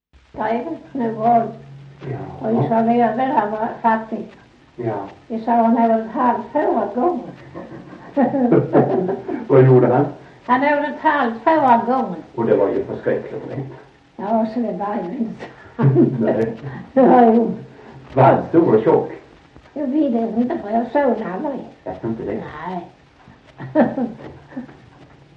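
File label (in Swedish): Sövde Skåne, dialekter svenska sagor; Folkminnen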